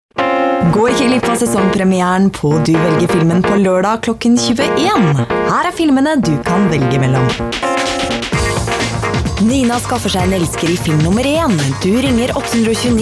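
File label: Norwegian female TV advert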